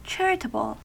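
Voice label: "charitable", US English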